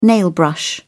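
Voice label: "nailbrush", British English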